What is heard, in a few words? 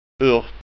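"urt", Scanian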